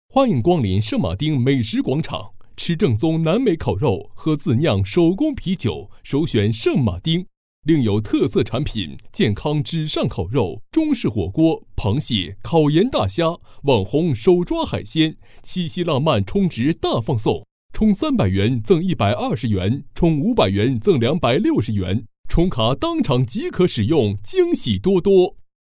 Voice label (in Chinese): 男 广告; 圣马丁美食广场